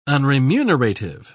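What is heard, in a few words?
"unremunerative", British English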